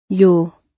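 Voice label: "your", British English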